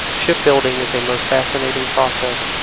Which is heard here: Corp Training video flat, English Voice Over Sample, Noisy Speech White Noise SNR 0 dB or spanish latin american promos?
Noisy Speech White Noise SNR 0 dB